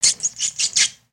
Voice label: Pet Bunny Happy; Lego; build mode

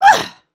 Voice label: Relief

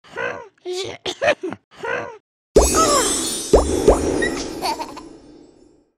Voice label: old man kid baby

